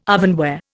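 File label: "ovenware", Indian English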